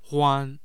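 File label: "huan", Mandarin Chinese, tone 1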